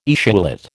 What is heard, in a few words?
"etiolate", American